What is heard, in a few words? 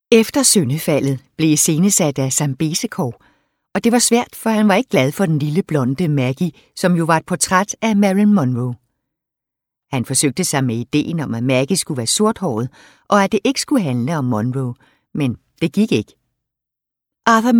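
Danish, Audiobook, female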